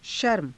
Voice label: "sharma", Hindi